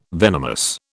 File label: "venomous", British